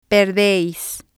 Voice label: "perdeis", spanish

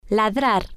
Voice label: "ladrar", spanish

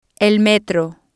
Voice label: "subway" Spanish

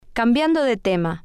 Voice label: "Cambiando De Tema", spanish

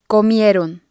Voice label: "comieron", Spanish

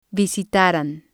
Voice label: "visitaran", Spanish

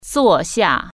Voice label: "sit down", Chinese

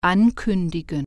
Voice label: "announce", german

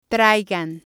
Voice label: "traigan", Spanish

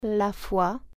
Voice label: faith, french

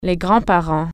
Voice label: grandparents, french

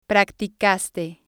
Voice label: "practicaste", spanish

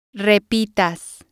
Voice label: "repitas", Spanish

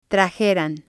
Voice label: "trajeran", Spanish

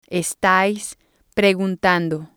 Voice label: "estais preguntando", spanish

